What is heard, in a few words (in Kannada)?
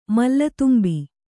"ಮಲ್ಲತುಂಬಿ", Kannada